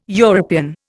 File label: "European", Indian